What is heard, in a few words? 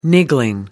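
"niggling", British English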